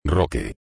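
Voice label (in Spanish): "roque", español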